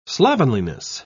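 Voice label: "slovenliness", British English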